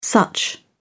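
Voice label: "such", British English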